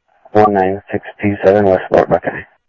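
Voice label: Sheriff West County, scanner audio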